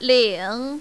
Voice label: "ling3", mandarin, female

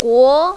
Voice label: "guo2", mandarin, female

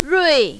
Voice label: "rui4", mandarin, female; 枘

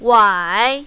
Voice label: "wai3", mandarin, female

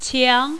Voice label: "qiang2", mandarin, female

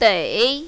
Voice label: "dei3", mandarin, female

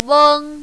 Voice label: "weng1", mandarin, female

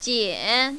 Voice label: "jian3", mandarin, female

